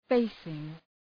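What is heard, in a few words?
"facing", English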